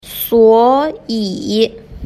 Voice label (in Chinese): "suoyi", Chinese